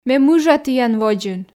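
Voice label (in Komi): ме мыжа тіян водзын, Komi, female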